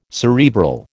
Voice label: "cerebral", American